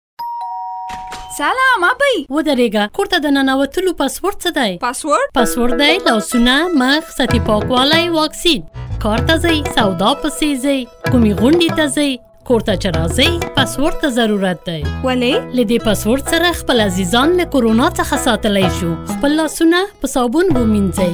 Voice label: Working Women Pashto

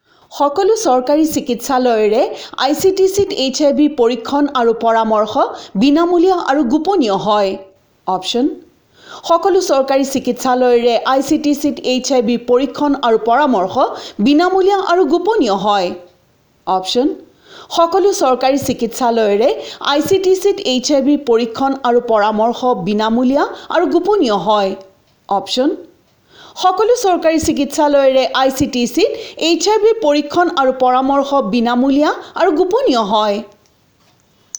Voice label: Assamese female

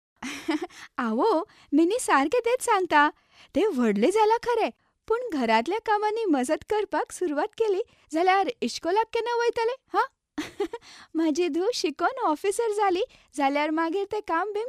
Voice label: KONKANI F

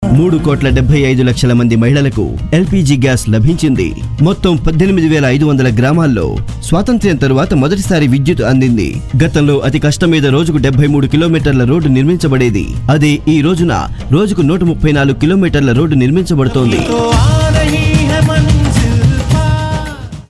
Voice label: TELUGU M

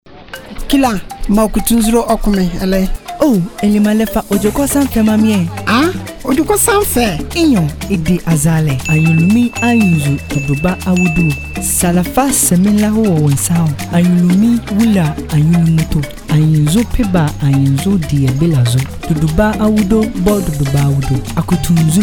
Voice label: Radio Juice Seller AHANTA

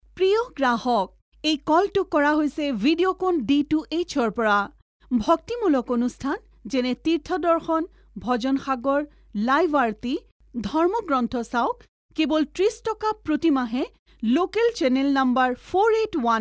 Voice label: Assamese female

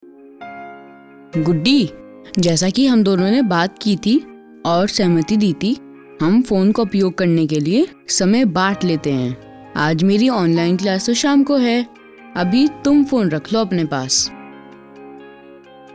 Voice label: Boy Sample

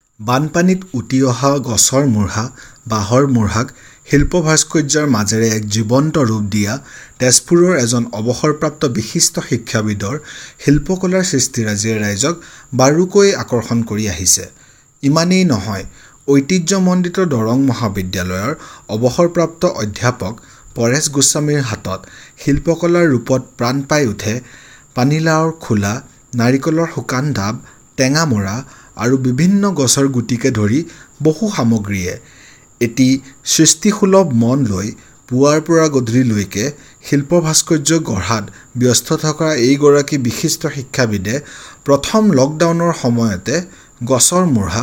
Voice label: ASSAMESE MALE

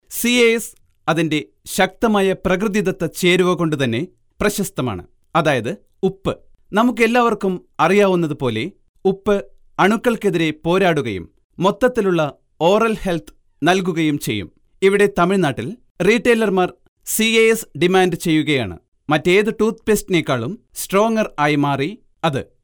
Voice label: MALYALAM M

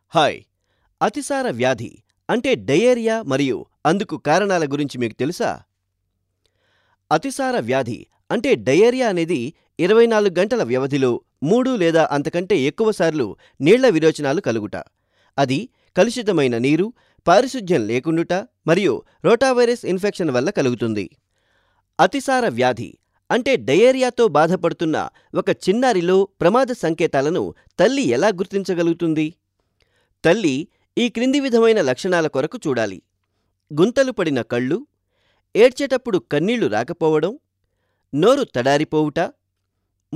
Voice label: TELUGU M

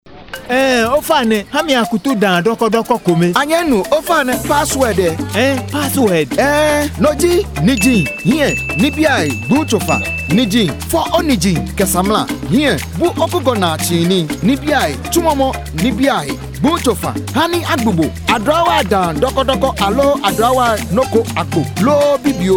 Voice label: Radio Juice Seller GA

